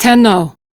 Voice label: Crew Greet, Steel Meridian Female; Dialog; en; Warframe; RailjackCrew